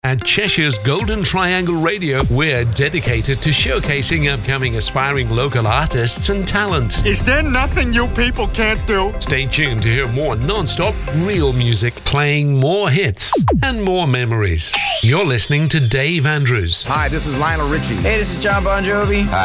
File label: Imaging Jingles and DJ Drops